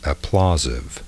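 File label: "applausive", spoken word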